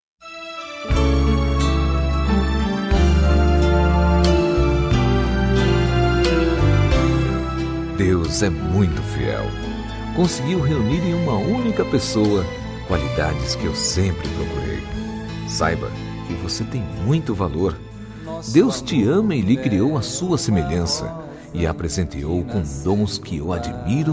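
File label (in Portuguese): Evangélica Declaracao de Amor, male, telemensagens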